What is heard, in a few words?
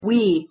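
pronunciation "we", English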